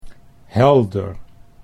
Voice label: "helder", Dutch